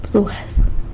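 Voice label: "bsuaz", squirrel, San Lucas Quiaviní Zapotec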